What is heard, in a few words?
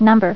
"Number", Voice Female; TalkingKeys